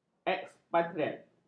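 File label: "ekspatriat", Malay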